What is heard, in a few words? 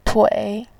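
"tui", Mandarin Chinese, third tone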